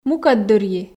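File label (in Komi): "мукӧддырйи", Komi, female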